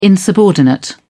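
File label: "insubordinate", British English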